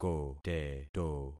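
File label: "koteto", concatenated syllables; Affixing experiment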